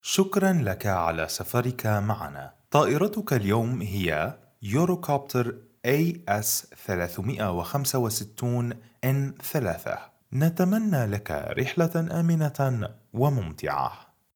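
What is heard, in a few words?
Arabic M eLearning, male; voice over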